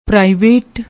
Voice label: "private", Punjabi